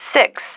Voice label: "six", female

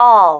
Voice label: "all", female

